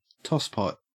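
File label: "tosspot", Australian English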